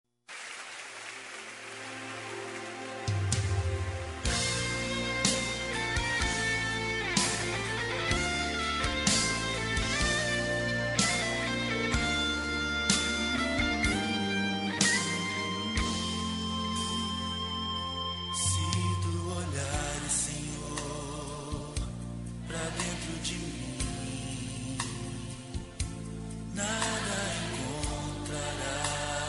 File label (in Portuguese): neutra gospel, Aniversário Pessoa Especial Gospel – Voz Masculina